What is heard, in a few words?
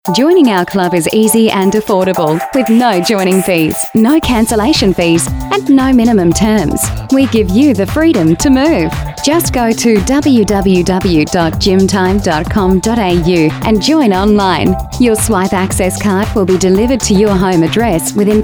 Female Talent